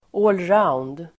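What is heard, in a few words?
"allround", Swedish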